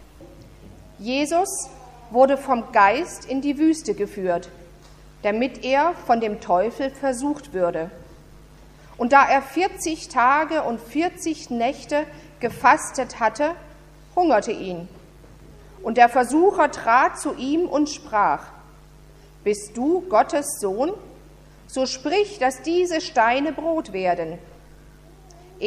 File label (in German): Predigt; am So. Invokavit; Matthaeus 5, 1-11; Stuttgart